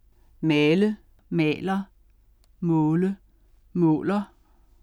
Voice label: male; male, maler